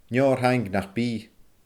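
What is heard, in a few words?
"neo ar thaing nach bi", Scottish Gaelic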